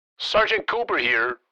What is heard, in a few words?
Military radio voice; Sergeant Cooper here